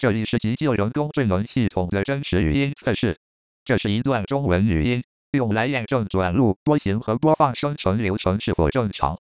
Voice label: real speech; 该通话为系统测试，不涉及真实急救请求。